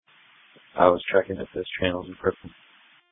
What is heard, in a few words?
Police Tactical Encrypted